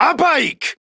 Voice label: Attack Male, Battle Barks; Warframe; Modular Corpus; en